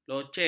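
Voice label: "loceng", Malay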